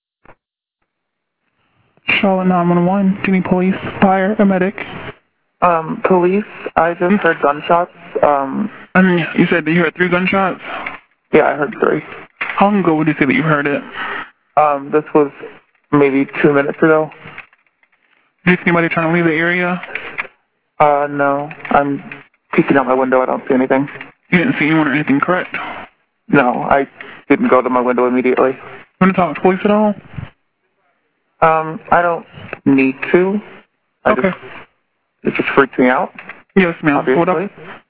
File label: 911 Call